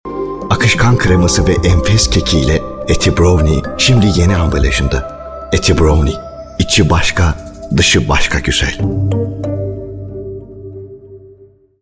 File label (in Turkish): reklam; brownie